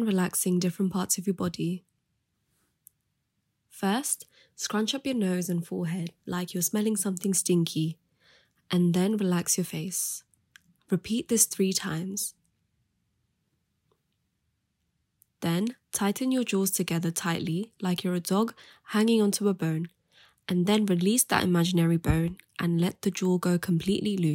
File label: Progressive muscle relaxation audio for children